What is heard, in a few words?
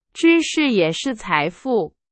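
Chinese; hsk4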